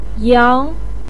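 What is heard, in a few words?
"yang2", Mandarin pinyin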